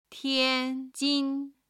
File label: "tian1 jin1", Chinese